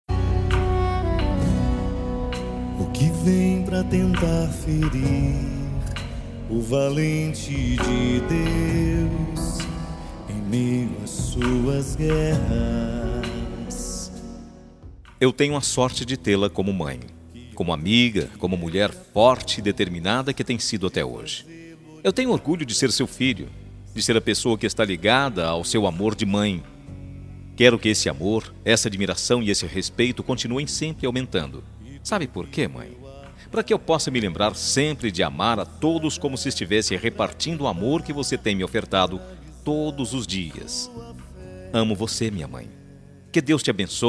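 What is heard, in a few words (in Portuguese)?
DIA DAS MAES RELIGIOSOS male, telemensagem; DIA DAS MAES RELIGIOSOS MASC; Padre Fabio de Melo; telemensagem dia das maes diversos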